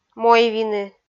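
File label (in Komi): "мойвины", Komi, female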